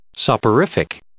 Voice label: "soporific", English